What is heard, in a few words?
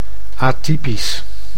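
"atypisch", Dutch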